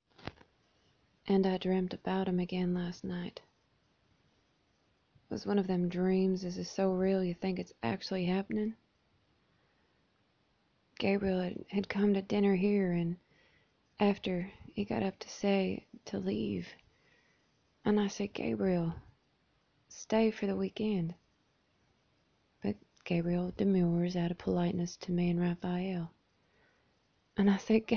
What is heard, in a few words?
Southern American Accent